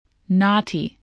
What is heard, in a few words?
"naughty", voice